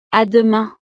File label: "à demain", francés